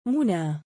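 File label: "munaa" female name, Arabic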